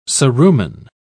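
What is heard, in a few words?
"cerumen", British English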